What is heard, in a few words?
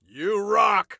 Compliment You Rock, Soldier Male